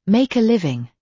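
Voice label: "make a living", British English; Life, leisure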